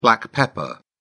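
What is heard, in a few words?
"black pepper", British English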